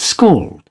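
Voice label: "scald", British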